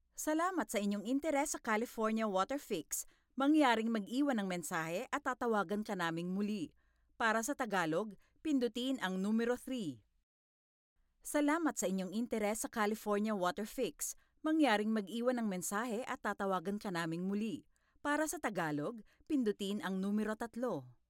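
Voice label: tagalog interactive voice response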